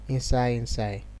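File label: "inside inside", Nigerian Pidgin, male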